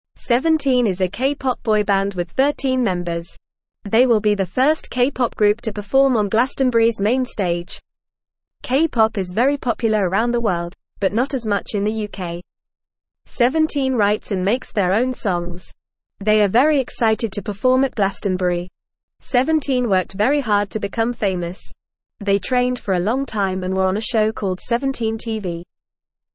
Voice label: English FAST Reading